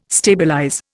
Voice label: "stabilize", Indian